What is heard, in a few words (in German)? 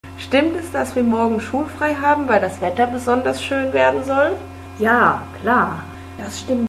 Interview mit einer Schulleiterin verrueckt